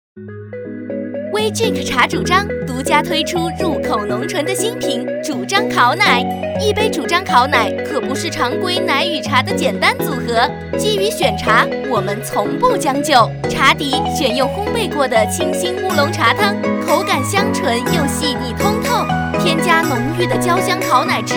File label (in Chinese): 广告, 茶